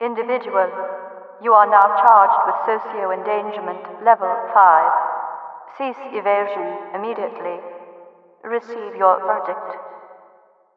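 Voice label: cease evasion level five, speaker; overwatch; cityvoice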